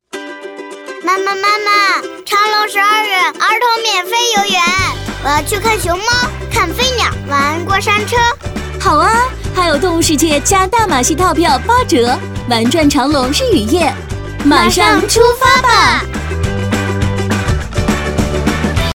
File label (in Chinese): 女 真男童; 广州长隆